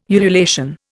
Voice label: "ululation", Indian